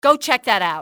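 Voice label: check that out, enemy, english, female; XBOX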